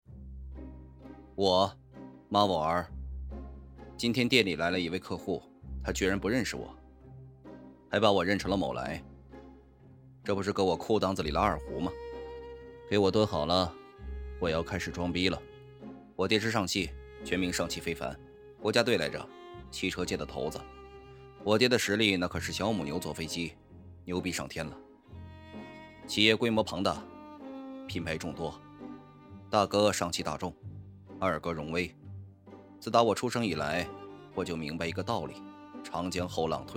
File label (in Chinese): 广告宣传